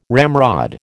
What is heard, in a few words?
"ramrod", American